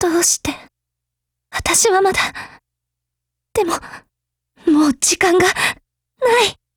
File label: retreat, JP; M4A1 Mod